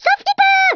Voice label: "run away", French